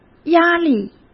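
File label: "压力", Mandarin Chinese; hsk; chinese-flashcards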